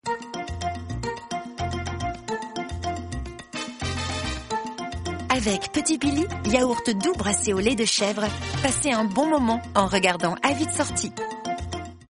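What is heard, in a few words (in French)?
gourmande fraîche, voix off féminine; Petit Billy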